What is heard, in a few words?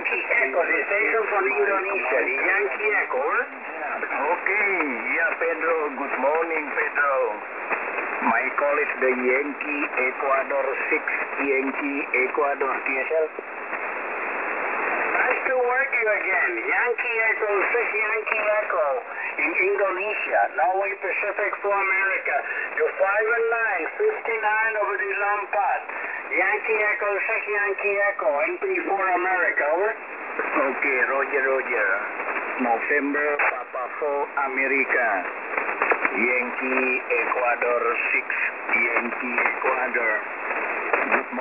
QSO 7137 kHz